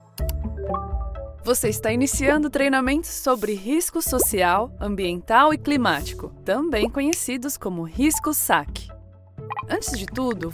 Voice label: portuguese brazilian e-learning, voice actor

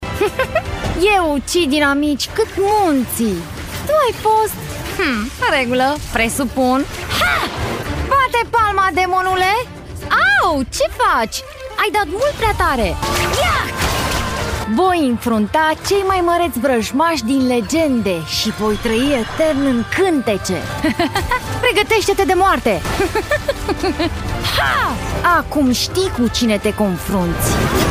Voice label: romanian video games

